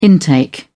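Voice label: "intake", British English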